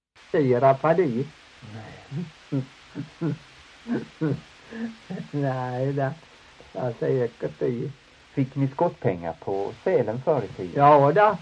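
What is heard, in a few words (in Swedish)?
Torhamn Blekinge, dialekter; Folkminnen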